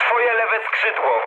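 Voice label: left wing, mood low, Polish speech; War Thunder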